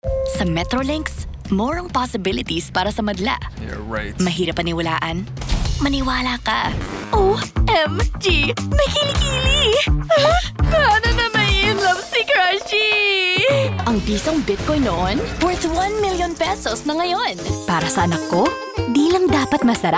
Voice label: Commercial Tagalog